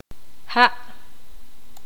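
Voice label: "agua", Poqomam, female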